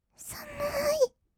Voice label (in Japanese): さむーい, kawaii voice; ASMR; 色々なワンショット